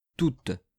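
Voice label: "toutes", French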